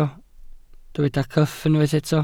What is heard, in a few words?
"cyff", Welsh